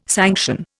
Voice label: "sanction", Indian